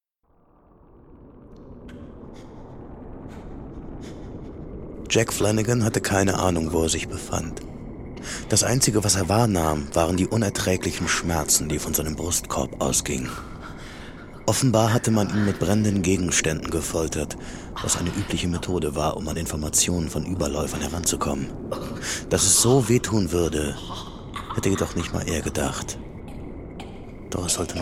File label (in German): hoerspiel